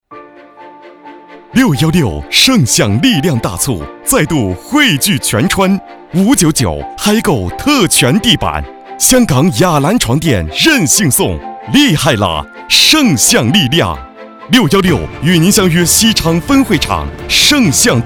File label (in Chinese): 男 广告 地板; 圣象地板